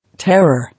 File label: "Terror", English